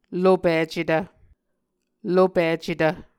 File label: "lopicetv", Muscogee